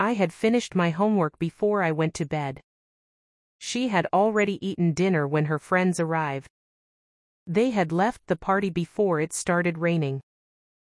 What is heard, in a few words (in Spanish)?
gramatica clase ingles